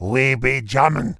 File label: Angry; warcraft3; Witch Doctor